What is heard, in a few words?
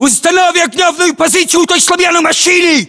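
order cover car wrecked, battlechatter, Russian; localized english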